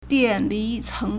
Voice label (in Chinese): "dian4li2ceng2", Chinese